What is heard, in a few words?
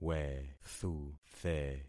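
"wethuthe", concatenated syllables; Affixing experiment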